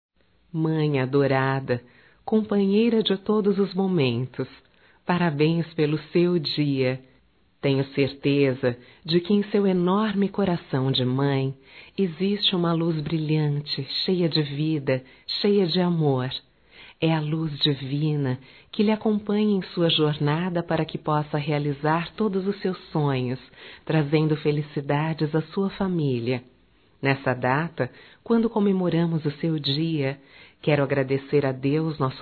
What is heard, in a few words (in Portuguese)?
Dia das Mães Geral Feminino; Telemensagens 2017